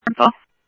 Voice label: Phoenix PD, scanner audio; PHOENIX PD-200 A; ThompsonPeakHigh

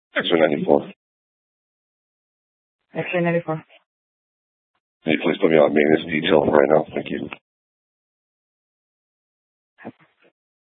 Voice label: Police Department Dispatch; Apache Junction; TOPAZ Regional Wireless Cooperative (TRWC); Mesa Simulcast

